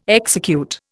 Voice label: "execute", Indian

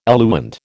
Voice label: "eluent", American

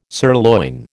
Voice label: "sirloin", American

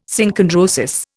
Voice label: "Synchondrosis", Indian

